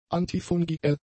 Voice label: "antifungiell", German; adjectives